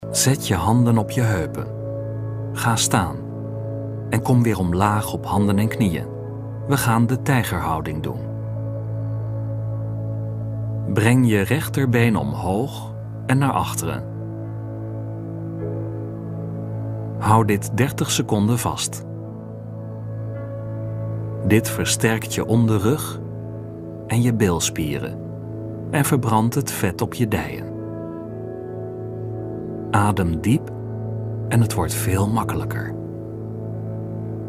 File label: podcast yoga exercise